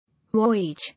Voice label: "Voyage", English